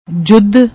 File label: "judh", Punjabi